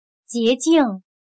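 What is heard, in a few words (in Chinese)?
"洁净", Chinese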